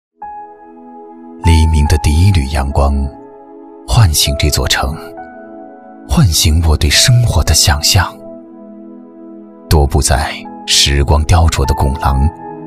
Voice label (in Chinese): 宣传片 企业 温情, 男国; 北新国际